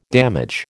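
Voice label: "damage", American English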